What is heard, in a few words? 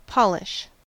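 "polish" English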